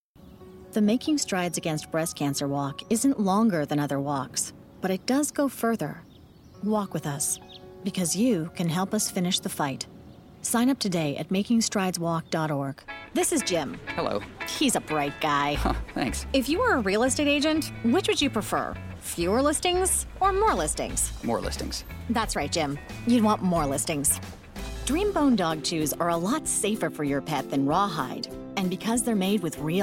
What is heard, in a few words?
Television